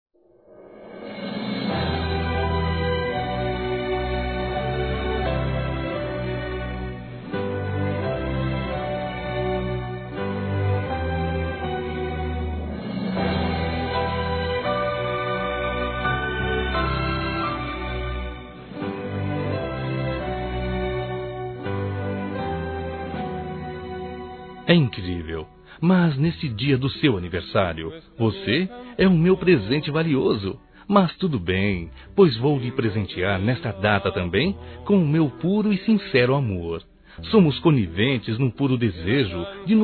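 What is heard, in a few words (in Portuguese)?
Romantica Masculino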